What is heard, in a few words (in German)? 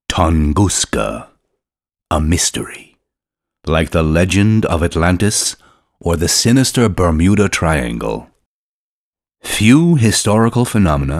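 US English, Kommentar Variabel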